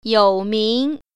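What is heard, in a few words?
"you3ming2", Mandarin Chinese Tone Pair Drills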